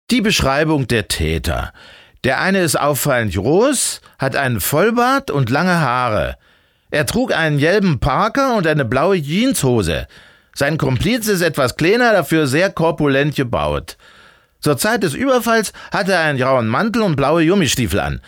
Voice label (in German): Dialekt Berlinerisch